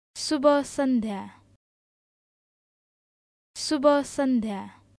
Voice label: Good afternoon Nepali